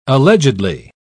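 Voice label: "allegedly", English, British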